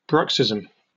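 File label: "bruxism", British English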